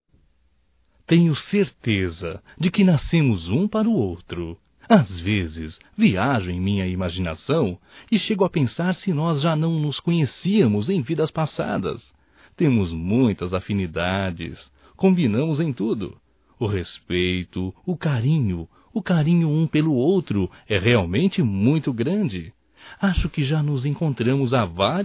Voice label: ETERNO AMOR(M); Telemensagens 2017; Declaração de amor

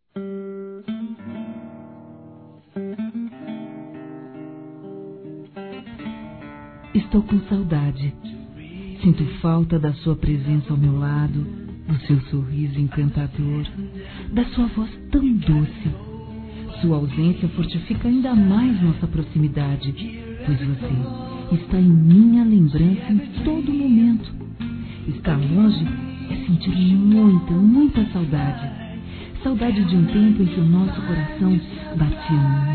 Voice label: SAUDADES ROMANTIC F

